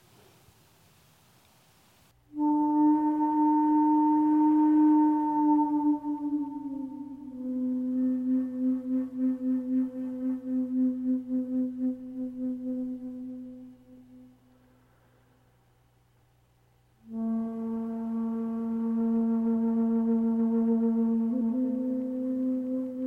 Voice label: Meditation; Meditation: Opening to the Waves; Tara Brach